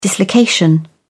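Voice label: "dislocation", English, British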